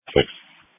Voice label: Police Dispatch